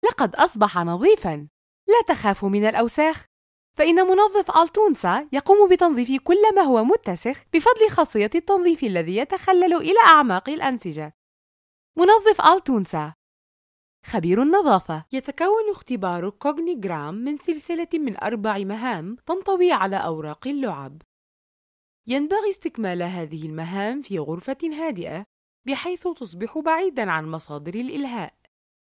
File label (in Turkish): Arapca Kadin Klasik Arapca Misir Lubnan; kadin sesler; klasik arapca seslendirme